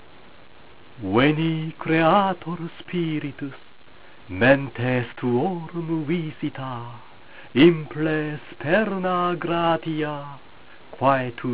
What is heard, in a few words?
Veni recitatio, latin